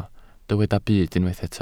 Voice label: "byd", Welsh